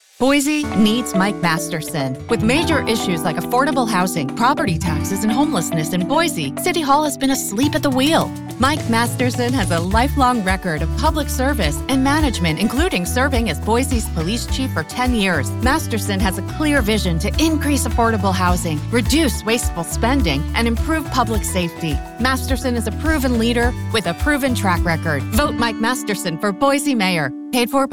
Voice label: Republican Image Ad VO